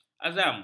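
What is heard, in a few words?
"azam", Malay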